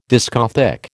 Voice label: "discothÊque", American